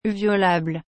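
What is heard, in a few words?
"violable", French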